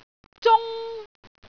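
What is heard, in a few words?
"zung1", Cantonese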